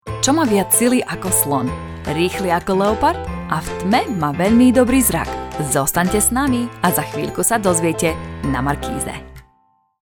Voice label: slovakian, narrator